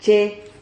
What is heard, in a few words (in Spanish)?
"che", Silabas